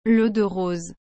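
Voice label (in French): "l'eau de rose", French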